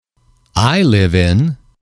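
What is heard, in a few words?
"I live in", English, male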